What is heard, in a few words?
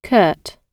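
"curt", British English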